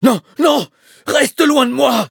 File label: levelup, fr; Cookie Run